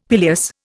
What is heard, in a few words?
"pileus", Indian; Dictionary